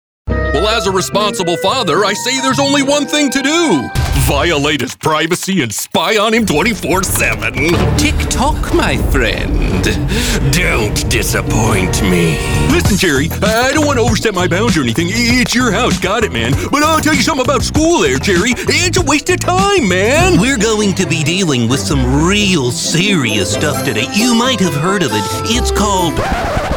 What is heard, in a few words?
Animation